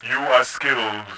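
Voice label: global rock, voice, Bot; Tribes2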